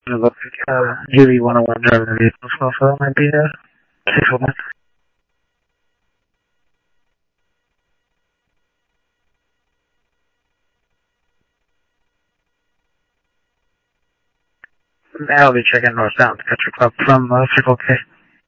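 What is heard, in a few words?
Simulcast police department, scanner audio trunk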